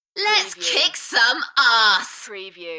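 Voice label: UK female, Enthusiastic, DJ vocals; Lets kick some arse; beanstalk audio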